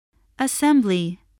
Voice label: "assembly", English